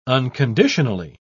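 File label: "unconditionally", British English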